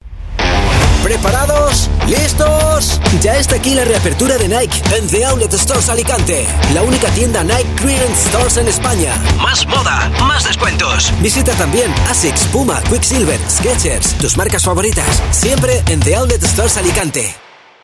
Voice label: European Spanish